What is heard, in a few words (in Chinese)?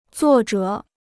"作者", Chinese